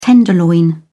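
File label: "tenderloin", British English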